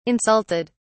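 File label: "insulted", British English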